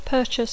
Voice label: "purchase", English

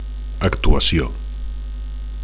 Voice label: "actuacio", Catalan; action; performance

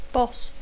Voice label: "boss", English

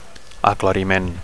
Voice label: "aclariment", Catalan; clarification

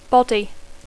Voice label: "body", English

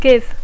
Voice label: "give", English

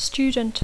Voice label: "student", English

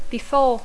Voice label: "before", English

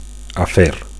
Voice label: "afer", Catalan; (m) affair

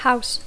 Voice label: "house", English

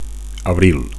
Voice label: "abril", Catalan; (m) April

